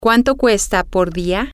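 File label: how much does it cost per day, spanish, female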